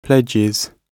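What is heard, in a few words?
"pledges", British English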